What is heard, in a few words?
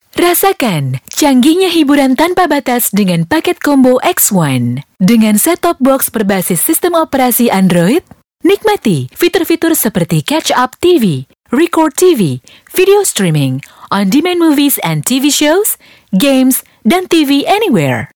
Bahasa Indonesian; First Media